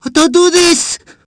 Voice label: english; Hitman